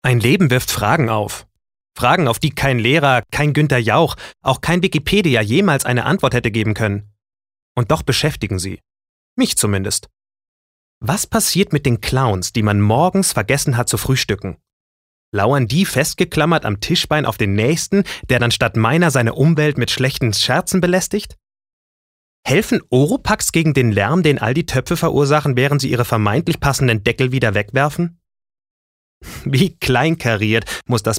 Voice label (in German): Schauspiel Freundlich